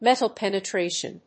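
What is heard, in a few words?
"metal penetration", English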